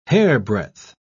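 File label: "hairbreadth", British English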